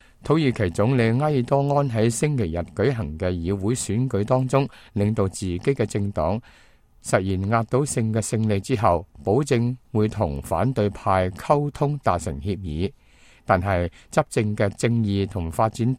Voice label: cantonese, news

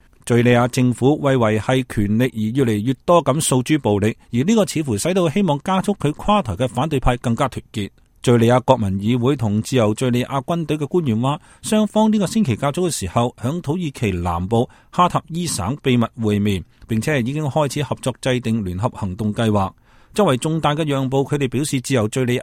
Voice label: cantonese; Syria Opposition

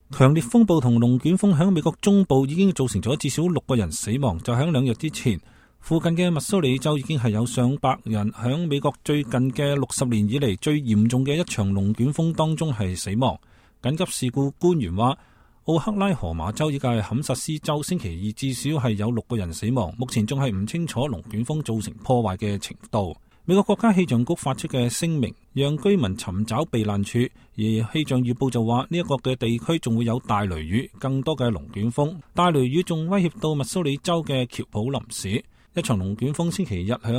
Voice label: Cantonese US Weather

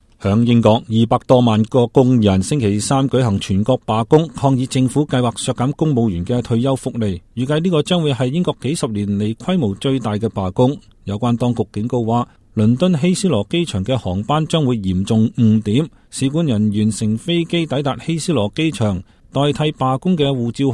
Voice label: British Strike, cantonese

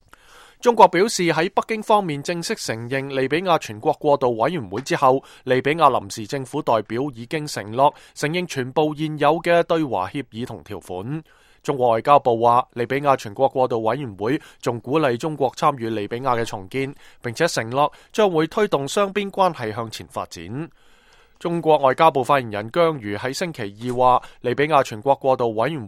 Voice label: cantonese; China Libya

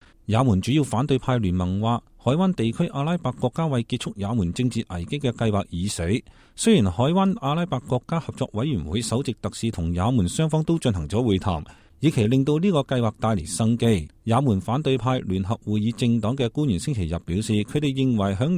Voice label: Cantonese Yemen

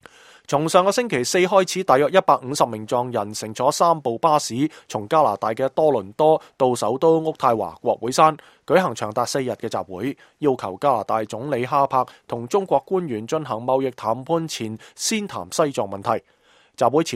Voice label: Tibet Canada, cantonese

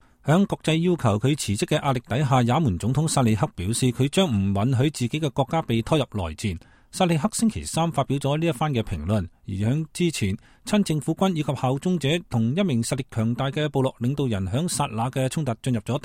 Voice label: Cantonese Yemen

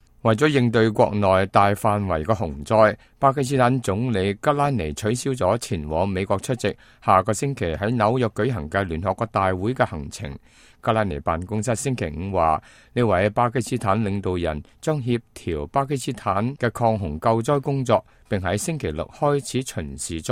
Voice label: cantonese, news

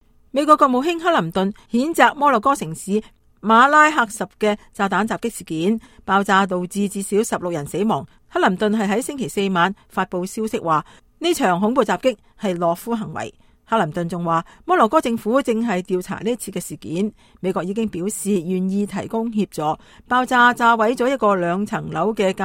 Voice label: News Morrocco Explosion, cantonese

